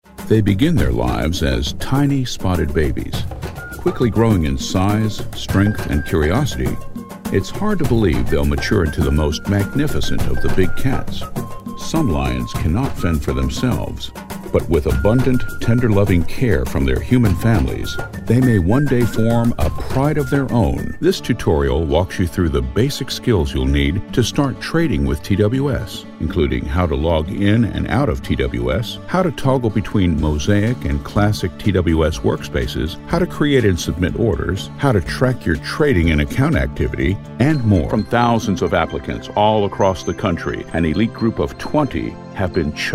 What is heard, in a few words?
Narr